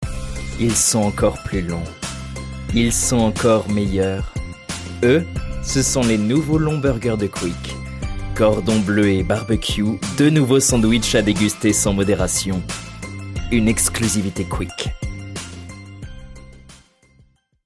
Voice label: radio advert french